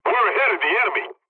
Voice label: pilot tickets lead start ally, english; War Thunder